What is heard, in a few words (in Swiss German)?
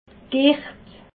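"gicht", Alsatian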